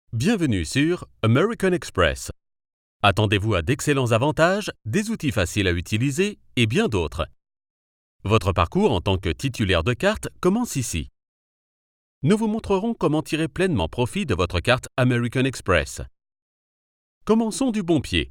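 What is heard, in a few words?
elearning, french male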